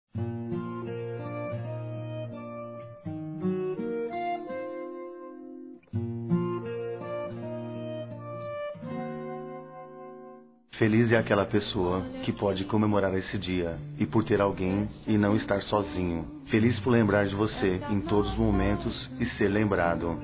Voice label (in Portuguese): Masculino, Dia Dos namorados; Telemensagens; Felicidade; Telemensagens 2017